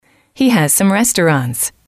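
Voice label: He has some restaurants, female, English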